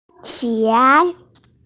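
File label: "er3qie3", Mandarin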